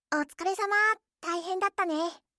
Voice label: anime, Anime Character Farewell Voices! "Sayonara" Phrases; anime characters say goodbye